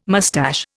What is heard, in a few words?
"mustache", Indian